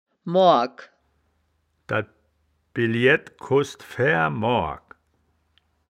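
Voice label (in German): "moargk", wittlicher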